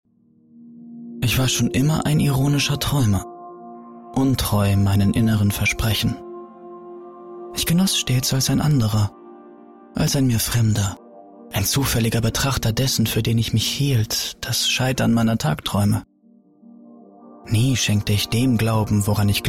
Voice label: erzaehlung

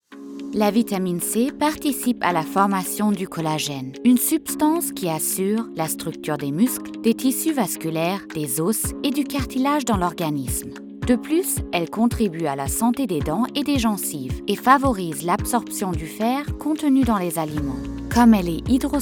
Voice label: Erklaertext FR; Vitamin C

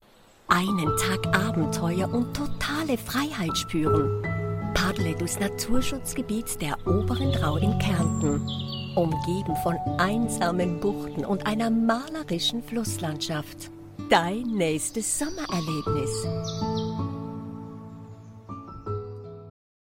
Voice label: Kärnten Werbung

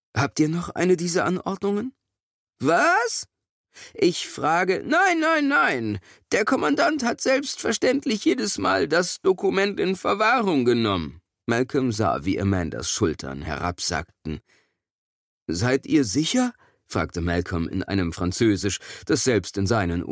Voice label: Hoerbuchprobe; LOFT VOICES

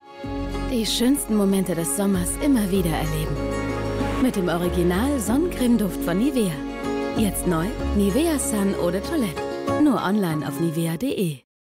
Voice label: Onlinespot; Nivea Sun

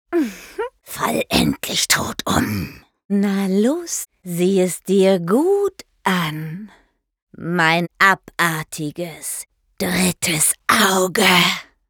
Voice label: Synchron Anime zwei Gesichter; LOFT VOICES

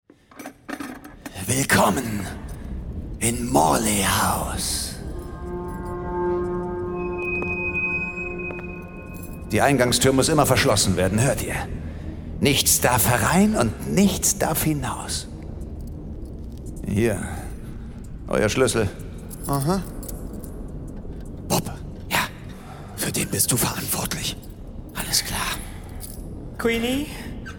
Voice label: livehoerspiel; diedreifragezeichen; LOFT VOICES

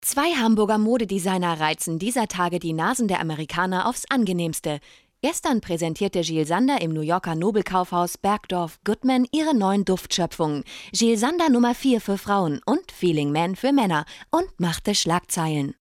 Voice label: kommentar

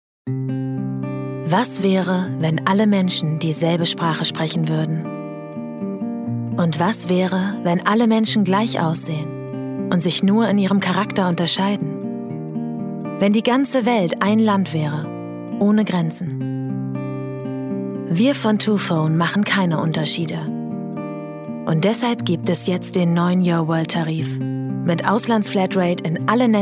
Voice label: werbung telefonanbieter; LOFT VOICES

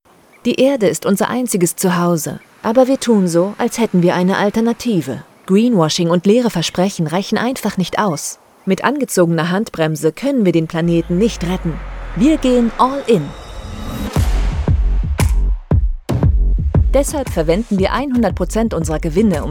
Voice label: Voiceover Imagefilm; ECOSIA 2022; LOFT VOICES

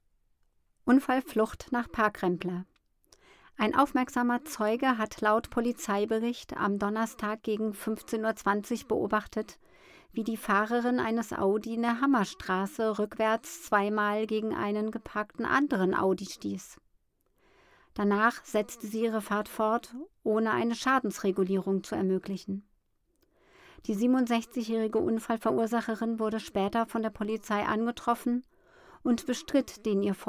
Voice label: Nachrichten, News (Nachrichten), German

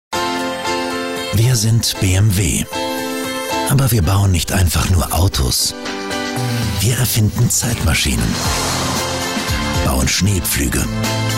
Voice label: Werbung vertauensvollfreundlich warm TV

